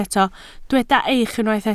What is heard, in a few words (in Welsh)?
"eich", Welsh